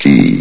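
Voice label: "key", announcer voice; Source Engine; bshift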